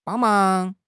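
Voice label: "bang1 mang2", Chinese; HSK; HSK3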